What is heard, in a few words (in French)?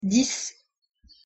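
"dix" French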